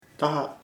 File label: "boil hervido", chamacoco